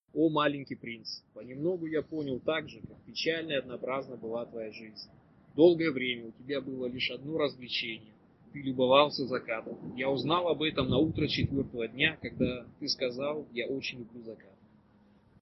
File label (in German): Russisch Kostanei